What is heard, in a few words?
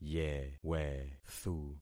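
"yewethu", concatenated syllables; Affixing experiment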